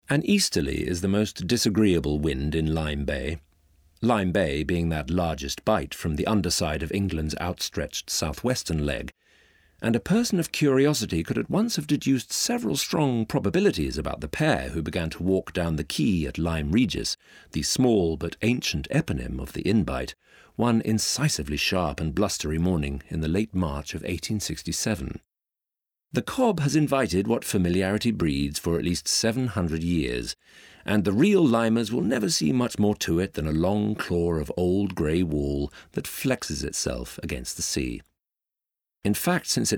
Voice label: narrative reel; The French Lieutenat's Woman